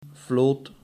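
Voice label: "floot", Dutch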